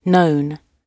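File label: "known", British English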